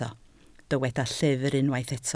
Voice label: "llyfr", Welsh

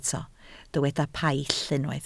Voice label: "paill" Welsh

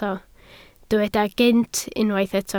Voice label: "gynt", Welsh

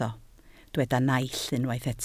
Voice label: "naill", Welsh

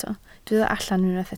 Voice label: "allan", Welsh

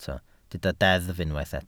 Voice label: "deddf" Welsh

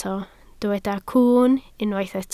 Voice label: "cwwn", Welsh

